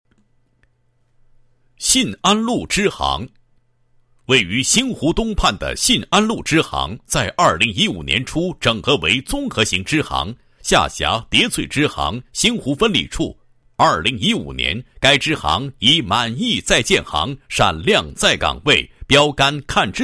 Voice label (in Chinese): 男 颁奖, 国语男声配音